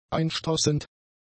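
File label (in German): "einstoßend", german; adjectives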